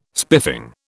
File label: "spiffing", British